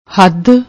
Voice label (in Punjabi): "had", Punjabi